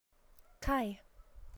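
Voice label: "kai", Hawaiian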